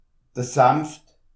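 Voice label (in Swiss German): "saneft", Alsatian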